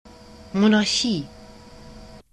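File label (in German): "Monarchie", German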